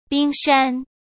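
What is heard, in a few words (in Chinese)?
"冰山", Mandarin Chinese; hsk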